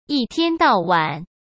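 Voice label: "一天到晚", Mandarin Chinese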